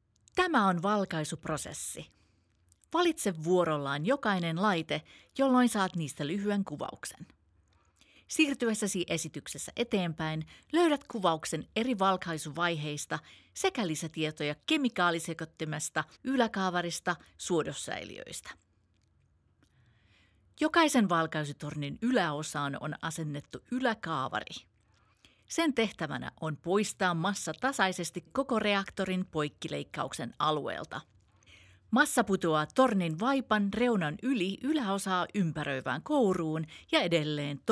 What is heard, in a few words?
Industrial Training Modules Finnish